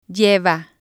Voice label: "lleva", spanish

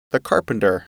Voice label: "carpenter", English

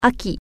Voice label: fall, japanese

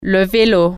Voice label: bicycle, french

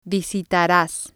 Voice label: "visitaras", Spanish

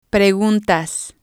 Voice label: "preguntas", spanish

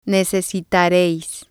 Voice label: "necesitareis", spanish

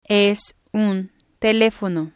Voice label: "es un telefono", spanish

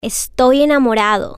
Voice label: i am in love male

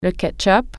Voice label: ketchup, french

